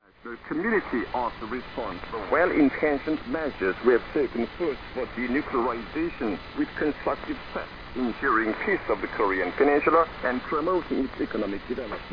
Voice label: 9875 kHz, english; Voice of Korea; North Korea; FTDX5000; Schwarzsee